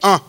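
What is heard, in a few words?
"uh", male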